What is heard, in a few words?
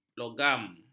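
"logam", Malay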